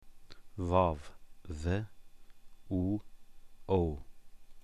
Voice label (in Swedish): "vav", persiska